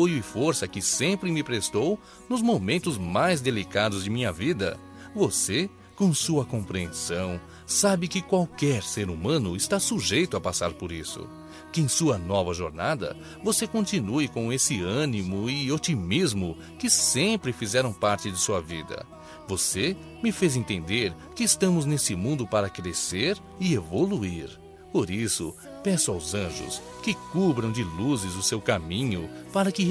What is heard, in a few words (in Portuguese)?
Aniversário Amiga Masculino; Aniversários; Aniversários diversos